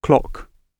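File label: "clock", British English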